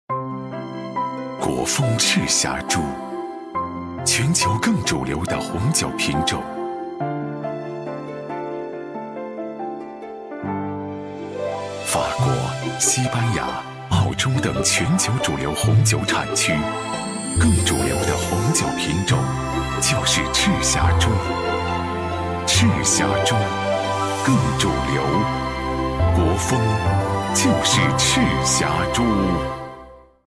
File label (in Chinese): 国语男 广告 酒类; 国风就是赤霞珠